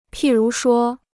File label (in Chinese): "譬如说", Chinese